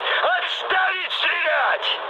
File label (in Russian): player friendly fire, mood high, speech ru